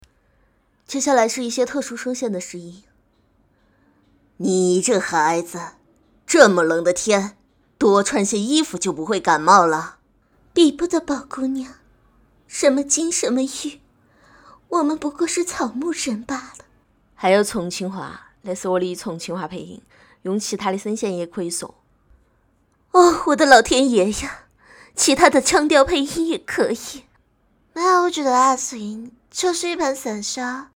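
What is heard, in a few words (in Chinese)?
老年重庆话译制腔台湾腔; 黛玉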